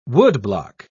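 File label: "woodblock", English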